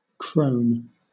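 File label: "crone", British English; pronunciations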